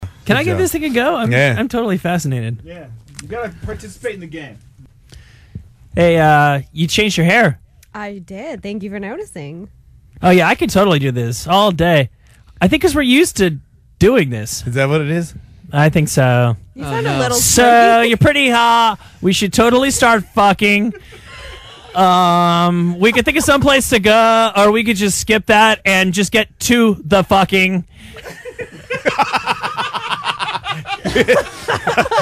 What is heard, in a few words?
speech jammer